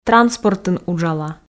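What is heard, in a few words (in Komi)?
транспортын уджала, Komi, female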